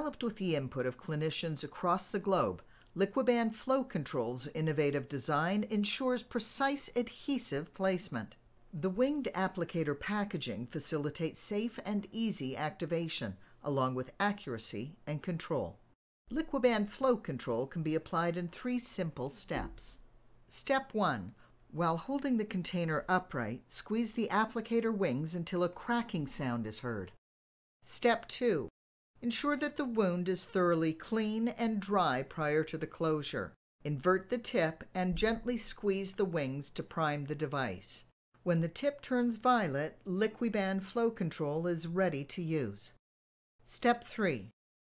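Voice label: voice sample instructional video, female voice; liquiband flow control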